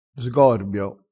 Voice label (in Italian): "sgorbio", crespinese